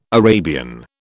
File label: "Arabian", British